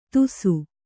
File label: "ತುಸು", kannada